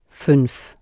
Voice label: "fuenf", German